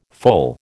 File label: "foal", American